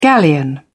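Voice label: "galleon", British English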